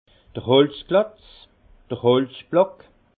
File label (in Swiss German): "holzklotz", alsace; vin; billes de bois